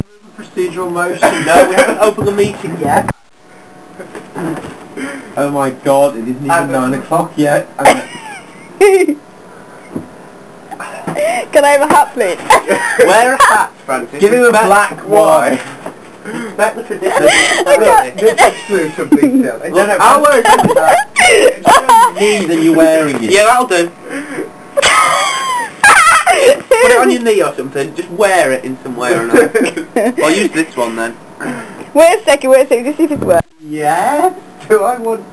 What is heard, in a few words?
Voicenotes